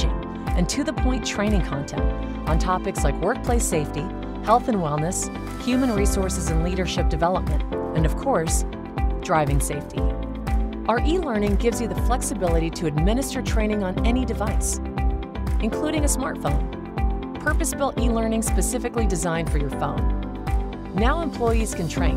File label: Voice Over LMS Courses; Driver's Alert